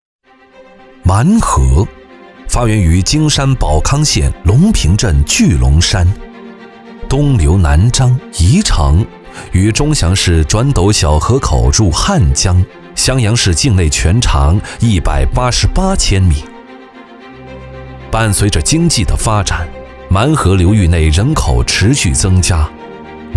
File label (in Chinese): 男 专题 讲述感, 年轻磁性配音, 普通话